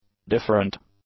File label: "different", english; adjectives